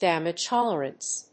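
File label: "Damage tolerance", English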